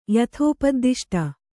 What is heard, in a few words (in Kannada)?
"ಯಥೋಪದ್ದಿಷ್ಟ", Kannada